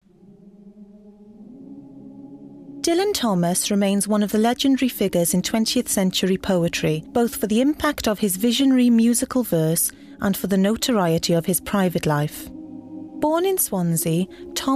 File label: Narration